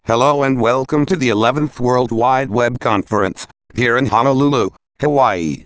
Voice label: rhetorical us